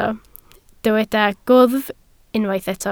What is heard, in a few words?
"gwddf", Welsh